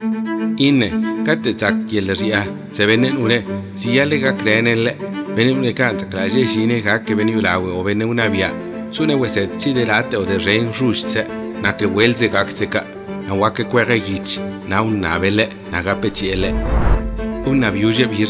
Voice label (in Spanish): ZAPOTECO